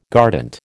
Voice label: "guardant", American